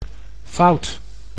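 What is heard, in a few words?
"fout", Dutch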